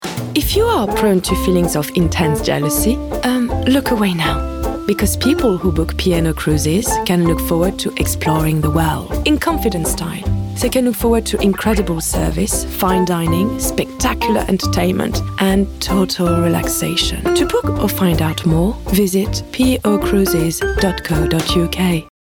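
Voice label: female English with French accent cruises commercial; voices; p and o crusies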